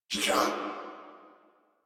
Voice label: surprised crewmen; Warframe; Corpus Crewmen; Crewman B

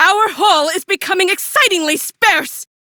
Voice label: Female; Warframe; Railjack; Cephalon Suda

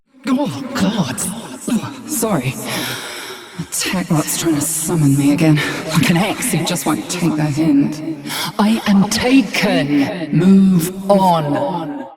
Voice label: Ambient Barks, en

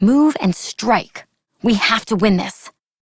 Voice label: Operator Voice; Battle Begins; en; Operator Mission

